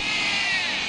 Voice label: "yeah" distort, voice

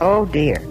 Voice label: "oh dear", expletives, g-rated swears

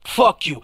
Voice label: "fuck you", male; expletives

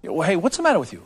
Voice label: hey what's the matter with you male

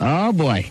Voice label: "oh boy", male; ooh ahh

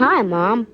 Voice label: "hi mom", boy; Voice; introductions; hello hi hey

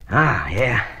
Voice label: "ah yeah", voice

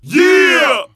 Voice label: "yeah", group of men

